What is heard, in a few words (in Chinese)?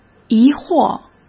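"疑惑", Mandarin Chinese; hsk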